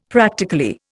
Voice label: "practically", Indian; Dictionary

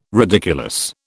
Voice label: "ridiculous", British

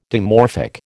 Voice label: "dimorphic", American

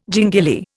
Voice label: "gingili", Indian

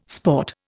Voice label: "sport", Indian

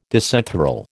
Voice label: "disenthral", American

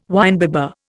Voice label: "winebibber", Indian

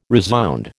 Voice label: "resound", American

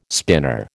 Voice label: "spinner", American

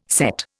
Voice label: "sett", Indian

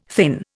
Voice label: "thin", Indian

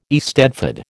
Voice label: "eisteddfod", American

